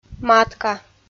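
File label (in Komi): "матка", Komi-Zyrian, female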